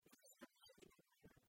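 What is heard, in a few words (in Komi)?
веськӧдлысьӧдз быдмыны, Komi, female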